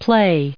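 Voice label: "play", us English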